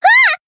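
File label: Voice damaged, Japanese; tsukiha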